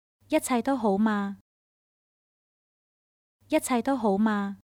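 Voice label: Is everything fine, Chinese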